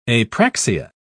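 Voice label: "apraxia", British English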